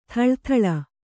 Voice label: "ಥಳಥಳ", Kannada